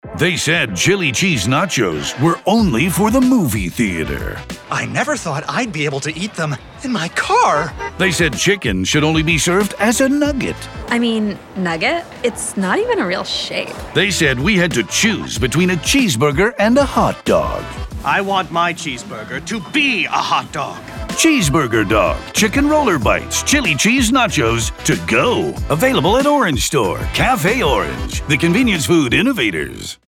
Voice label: Radio Spot; North Atlantic